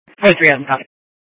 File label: Police Dispatch, scanner